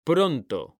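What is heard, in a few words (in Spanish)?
"PRONTO", Spanish; mekanta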